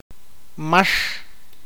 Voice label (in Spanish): "izquierda", awakateko